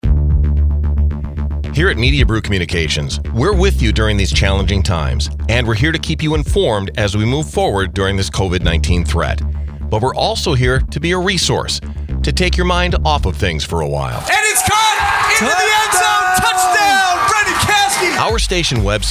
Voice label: Sports Promo; WKQS